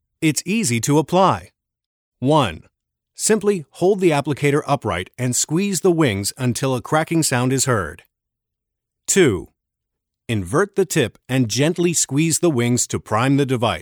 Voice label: Instructional